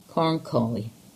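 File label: "Carnkie", English; place-names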